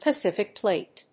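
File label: "Pacific Plate", English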